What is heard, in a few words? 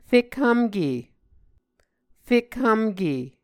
"fekhvmke", muscogee